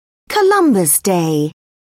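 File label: "columbus day", British English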